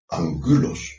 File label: "ankulos" Ancient Greek